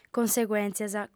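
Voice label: "conseguèntzias", female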